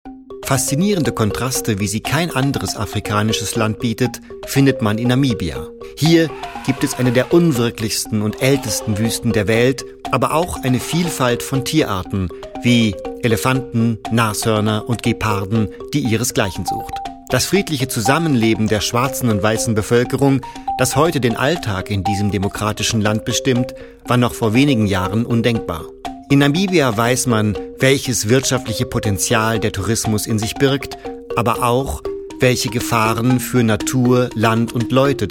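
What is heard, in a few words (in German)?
voiceover, deutsch männer; sprecher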